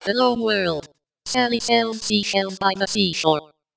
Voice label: espeak ng hello